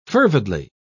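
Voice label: "fervidly", British English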